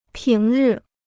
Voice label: "平日", Chinese